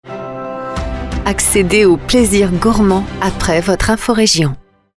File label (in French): radio pub spot